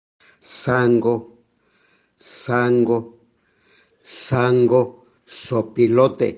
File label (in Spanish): zopilote mixteco; vocabulario palabras en mixteco